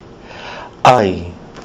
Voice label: ai as in kai, kiwi english